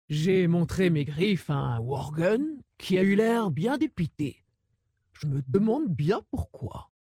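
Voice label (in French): French Female Blague; Dracthyr; Worgen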